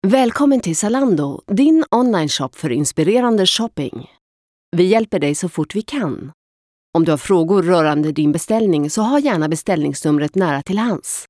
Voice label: se telefon, schwedisch

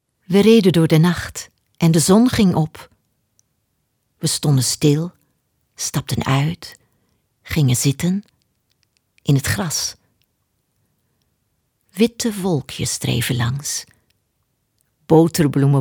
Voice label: niederlaendisch; sprecher

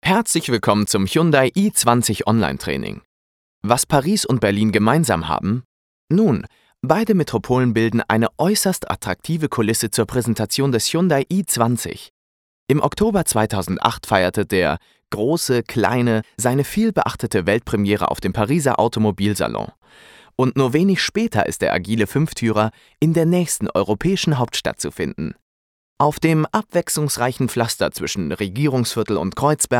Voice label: de elearning, deutsch maenner

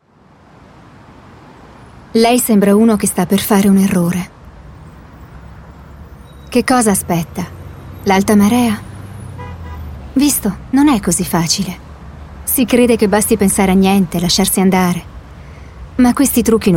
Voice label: it hoerbuch, italienisch; sprecher

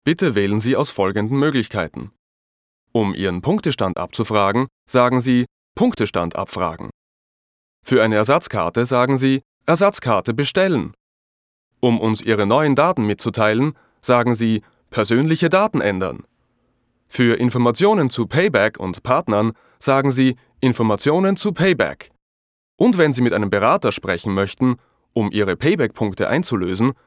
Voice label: at telefon, sprecher oesterreichisch

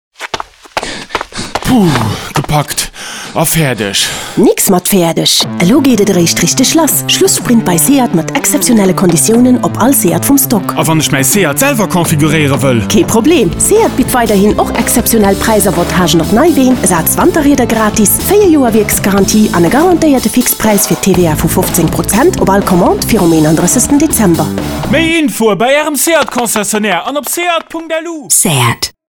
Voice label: Werbung

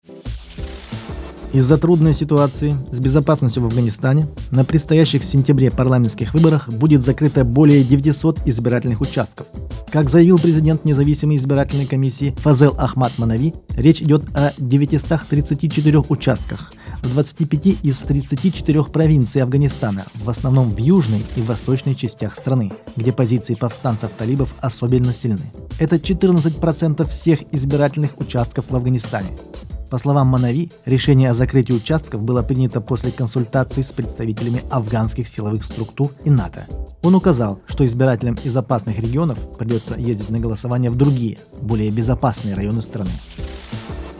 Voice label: news, russisch

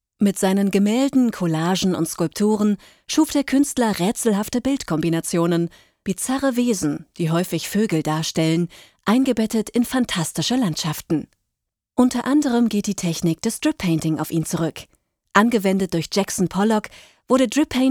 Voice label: Infotext, deutsch frauen; sprecher

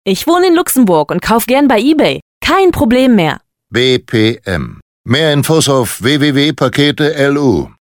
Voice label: de werbung, deutsch frauen; sprecher

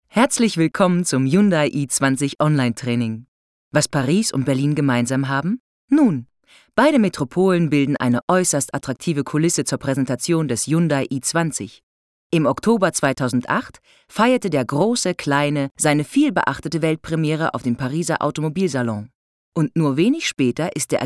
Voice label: deutsch frauen elearning; sprecher

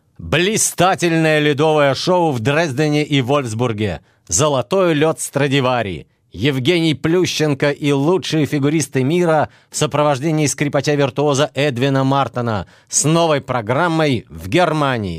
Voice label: ru werbung, sprecher russisch

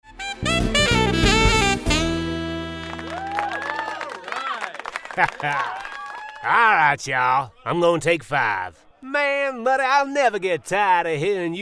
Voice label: US synchro, englisch; sprecher

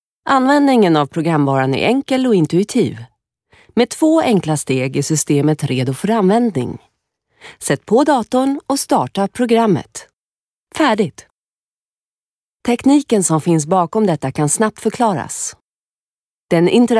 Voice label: schwedisch

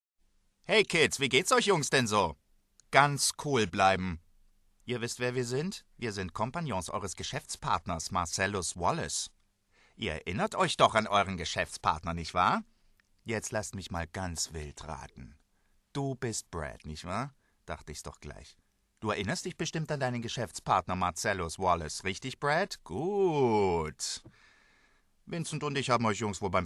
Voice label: de erzaehlung, deutsch maenner; sprecher

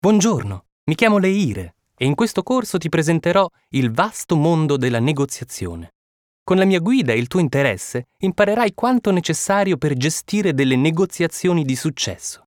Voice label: it elearning, italienisch; sprecher

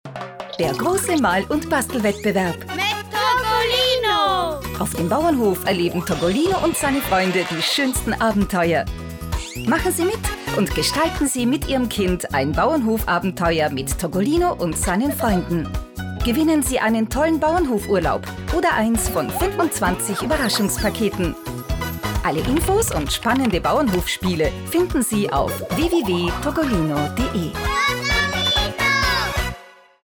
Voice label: oesterreichisch werbung; sprecher

